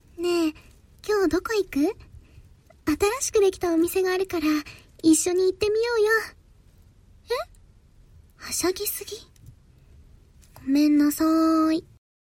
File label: cute